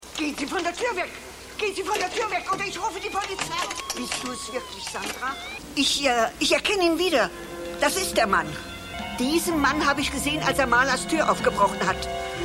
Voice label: Mutter; Sandras Mutter

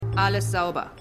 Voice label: Frau

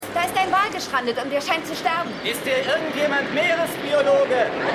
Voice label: Frau Mann, Stimmproben; Seinfeld

